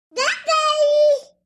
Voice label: Baby Girl Shout Daddy, nineteen month old

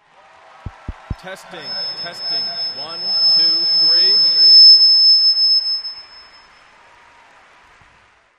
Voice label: Reverberant Arena Over P.a., testing microphone; Reverberant Arena Over P.a. system, Feedback, Mike Taps, "testing 1-2-3

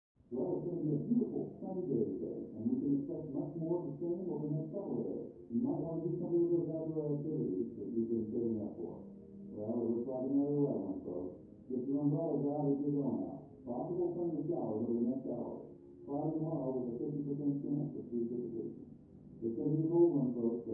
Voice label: Tv Male Weather Repo, news

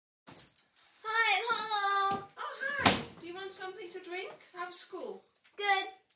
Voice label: 7 Yr Old Girl From Scho; kids play

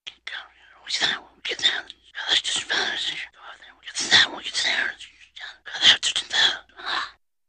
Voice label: Unintelligible Whi, reactions; Funny, Unintelligible Whisper

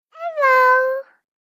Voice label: 3 Yr Old Girl Says Hi; kids play

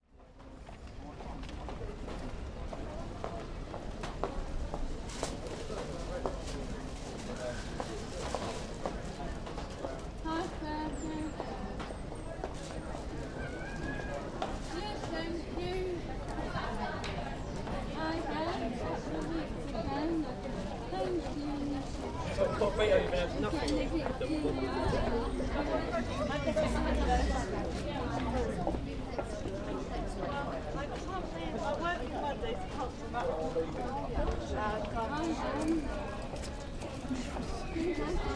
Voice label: Market English Walla

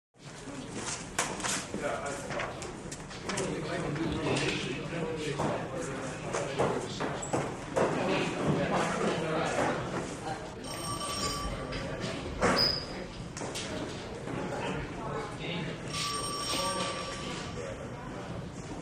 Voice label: Police Station Walla; police station bg

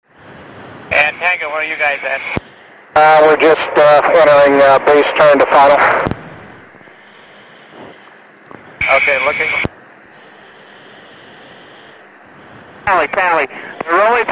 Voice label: In Flight Exchange, radio

